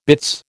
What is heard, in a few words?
"bitts", American